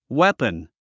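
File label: "weapon", English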